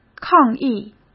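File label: "抗议" Mandarin Chinese; chinese flashcards; hsk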